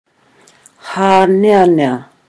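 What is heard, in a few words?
"haneanea", Maori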